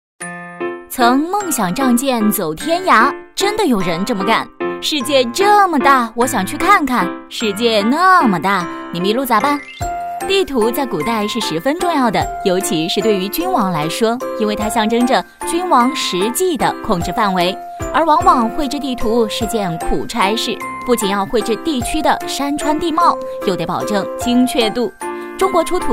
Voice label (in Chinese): 女 轻松 MG风格; 地图测绘